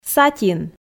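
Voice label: "сатин", Komi, female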